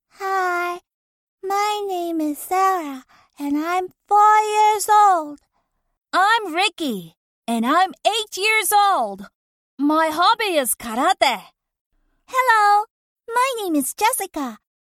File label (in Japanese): 英語キャラメドレー（少女、少年、若い女の子、中年女性、老婆）